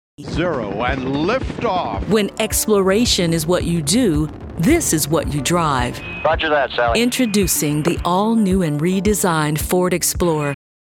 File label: Automotive Ad Voiceover Sample